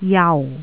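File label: "jau4", Jyutping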